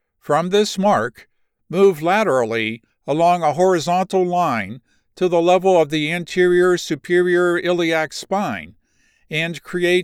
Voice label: Med Inguinal hernia repair procedure, science medical narration